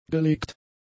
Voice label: "gelegt", German; adjectives

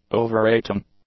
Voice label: "overrating", English; nouns

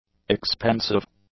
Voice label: "expensive", english; adjectives

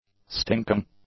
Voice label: "stinking", English; adjectives

